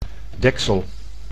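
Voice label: "deksel", Dutch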